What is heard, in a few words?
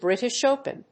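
"British Open", English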